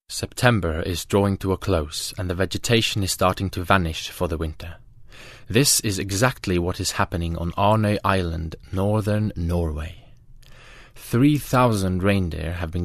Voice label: Norwegian English, Norwegian, Scandinavian, Male, 20s-30s, voiceover